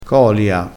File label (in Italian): "colia", crespinese